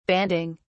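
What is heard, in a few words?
"banding", British English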